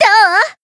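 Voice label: attack, Japanese; alma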